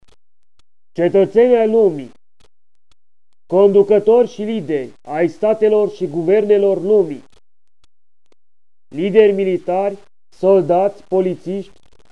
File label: global disarm call, Romanian